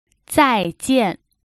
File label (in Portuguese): tchau em mandarim